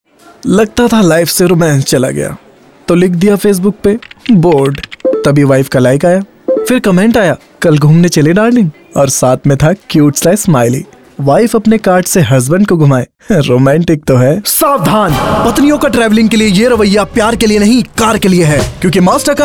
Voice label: Travel, male voice

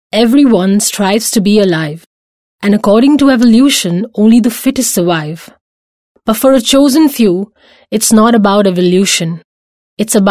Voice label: english, female voice

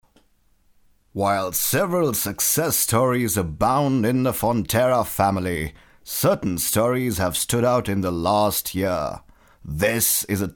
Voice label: audition english, male voice

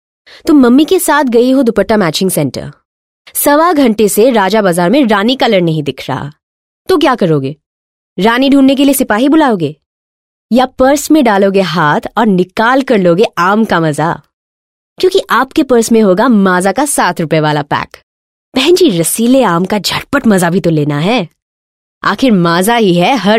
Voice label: Hindi, female voice

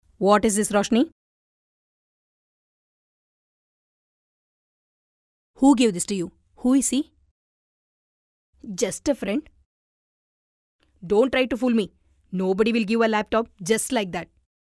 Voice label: english, female voice; microsoft croma

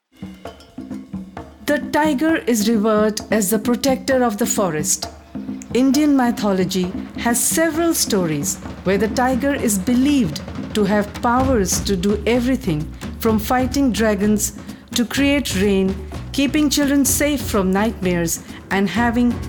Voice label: english narration, female voice

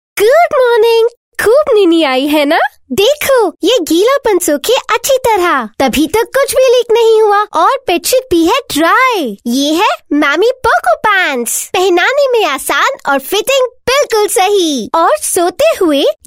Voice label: hindi, female voice

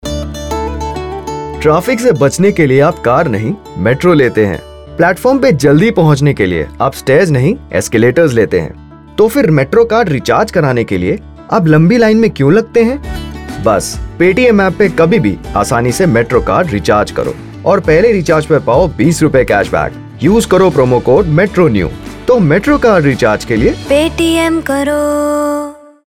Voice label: Hindi, male voice

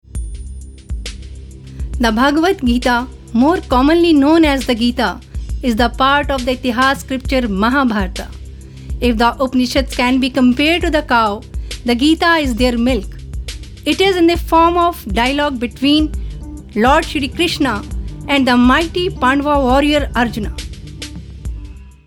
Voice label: female voice narration English

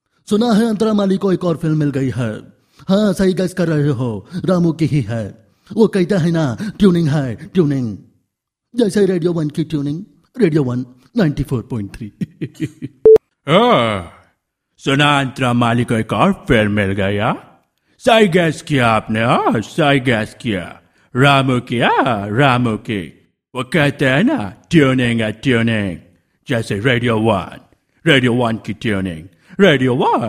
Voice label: male voice

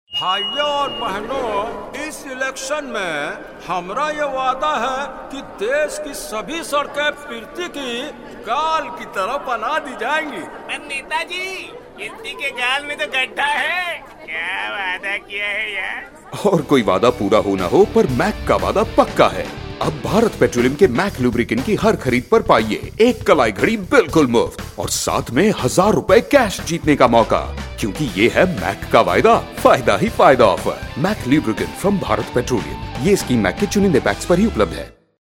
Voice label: male voice

